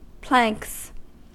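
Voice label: "planks", American English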